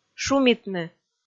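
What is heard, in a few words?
"шумитны", Komi, female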